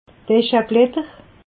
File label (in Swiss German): "escheblatter", alsace